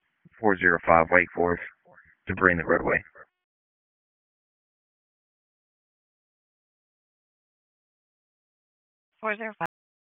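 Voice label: Wake Forest Police Dispatch, p25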